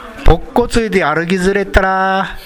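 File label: "bokko", Minamiyamagata dialect Japanese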